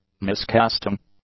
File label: "miscasting", English; adjectives